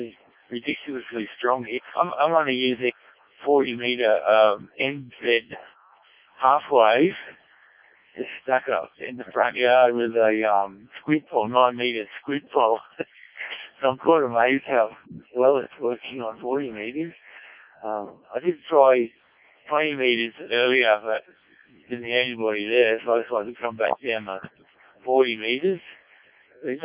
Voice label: decoded speech, 7177KHz